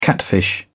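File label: "catfish", British English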